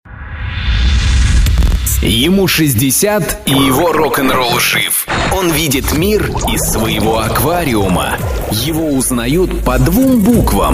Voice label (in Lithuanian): Rusiškas balsas, vyras